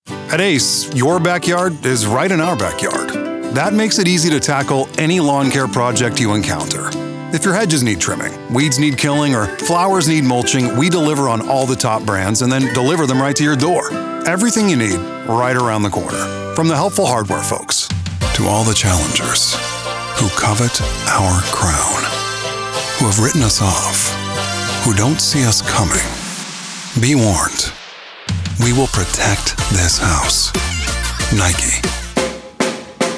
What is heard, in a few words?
Commercial Familiar Versatile